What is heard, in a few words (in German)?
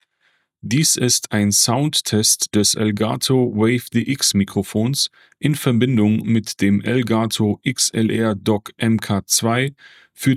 Elgato WAVE DX Test; Das Elgato WAVE DX soll einen warmen, lebensechten Sprachklang mit überraschend viel Detai